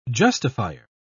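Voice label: "justifier", British English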